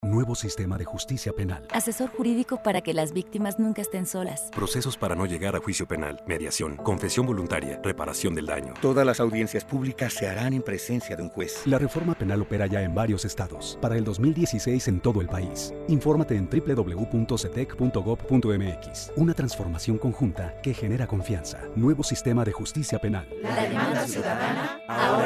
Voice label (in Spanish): Spot Radio; SETEC; Asesor Jurídico; Mediación; confesión voluntaria; reparación del daño; audiencias